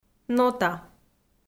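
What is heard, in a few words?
"нота", Komi-Zyrian, female; audio dict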